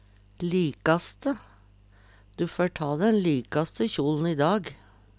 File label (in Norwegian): likaste, Numedalsmål; beste, finaste; Adverb